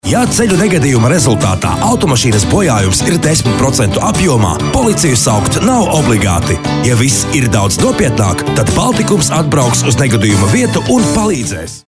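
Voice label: Latvian male commercial; voice demos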